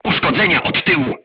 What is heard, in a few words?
voice message shot back, crew tanks polish